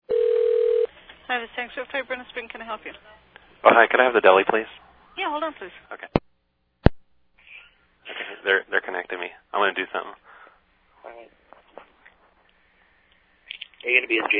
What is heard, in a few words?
fake collect call to work